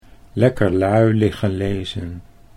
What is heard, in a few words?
"lekker lui liggen", Dutch